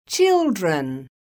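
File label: "CHILDREN", English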